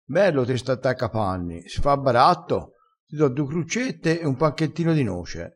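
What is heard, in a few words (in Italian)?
"fa a baratto", vocabolario dialettale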